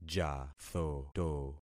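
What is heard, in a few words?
"jathoto", concatenated syllables; Affixing experiment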